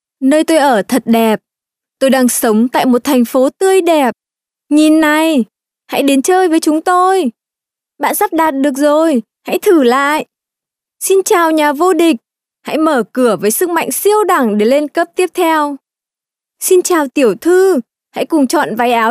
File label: Female Vietnamese Toys